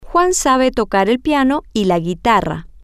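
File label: knows how to play the piano and the guitar, Spanish, female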